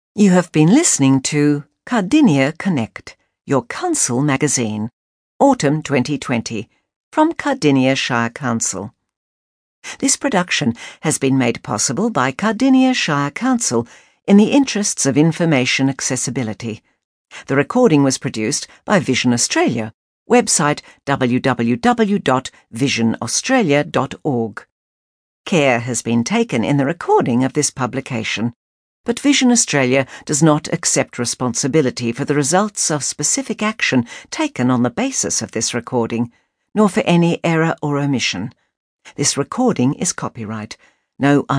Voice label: closing announcement